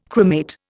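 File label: "cremate", Indian English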